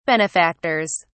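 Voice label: "benefactors", English, British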